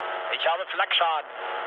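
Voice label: German damaged aa mood low, speech; War Thunder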